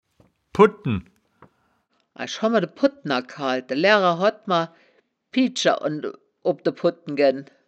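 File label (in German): "pudten", wittlicher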